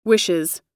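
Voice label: "wishes", US English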